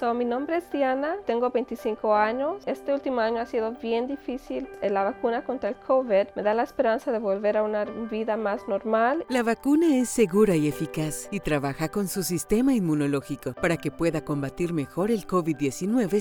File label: Spanish spot